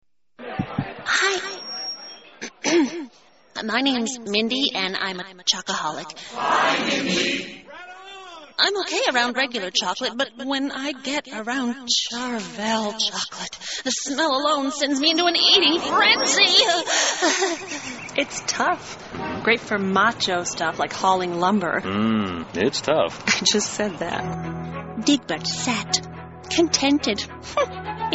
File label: voiceover female